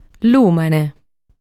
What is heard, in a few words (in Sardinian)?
"Lùmene", Sardu